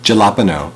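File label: "jalapeno", US English; pronunciations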